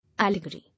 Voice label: "Allegory", English